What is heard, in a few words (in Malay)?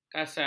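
"kasai", Malay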